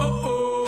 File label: uh oh